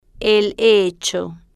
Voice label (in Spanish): "el Hecho", Spanish